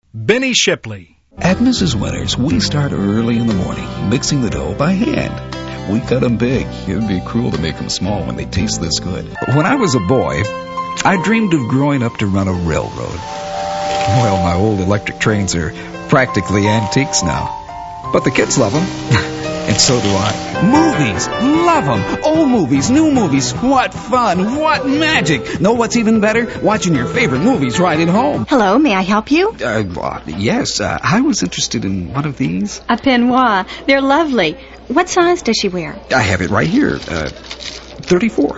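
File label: Male Talent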